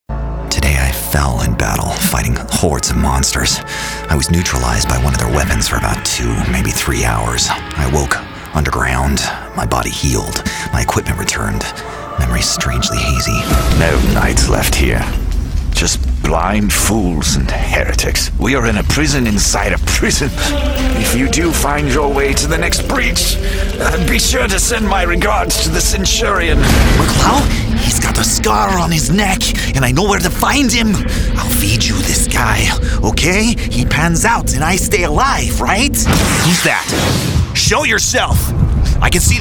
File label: Men Video Games Voice; Mid-range to deep, announcer to guy next door...and everything in between.